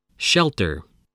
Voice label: "shelter", spelling

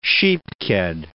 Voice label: "sheep ked", English

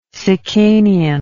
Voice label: "sicanian", words

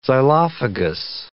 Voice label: "xylophagous", English

